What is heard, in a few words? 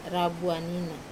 "rabua nina", now, wamesa